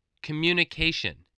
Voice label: "communication", english